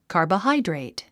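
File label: "carbohydrate", English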